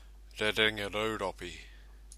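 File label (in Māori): "rerenga rauropi", Māori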